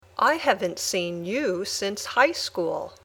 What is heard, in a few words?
Dictation